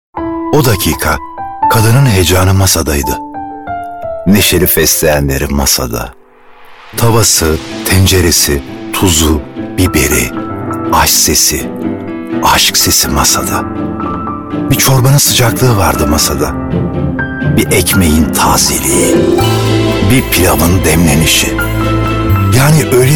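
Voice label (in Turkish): anlatıcı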